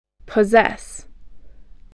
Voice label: "possess", English